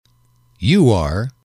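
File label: you are informal, male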